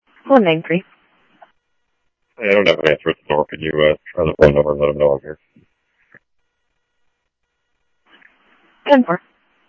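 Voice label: Paradise Valley PD Dispatch, scanner audio; H-Scottsdale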